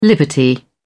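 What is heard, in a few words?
"liberty", British English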